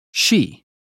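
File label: "she", British phonetics; 英语音标发音（剑桥版）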